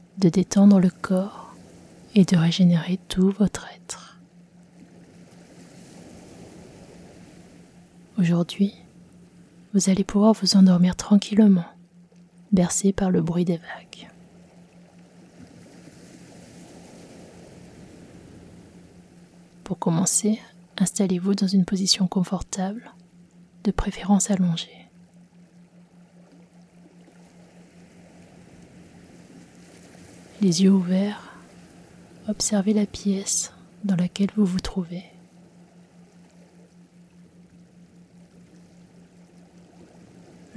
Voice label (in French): meditation soir sommeil profond; regenerant ressourcant